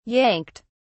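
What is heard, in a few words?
"yanked", British English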